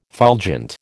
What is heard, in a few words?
"fulgent", American